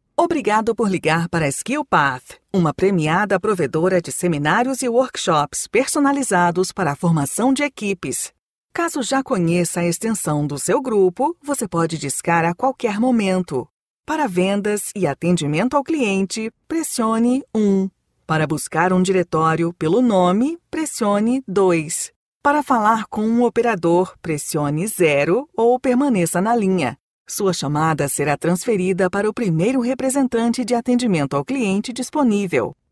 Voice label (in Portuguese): Portuguese BR native